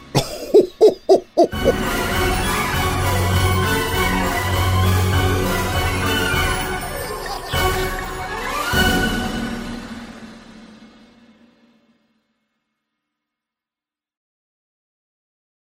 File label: Spooky Santa Trailer